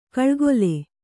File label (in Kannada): "ಕೌಳುಗೋಲ್", Kannada